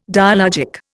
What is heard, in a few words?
"dialogic", Indian